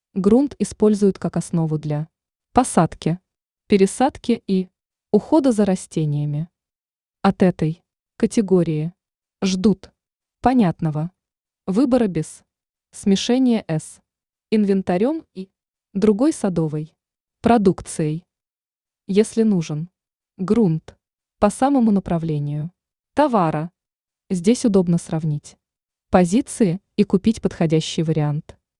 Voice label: text bot grunt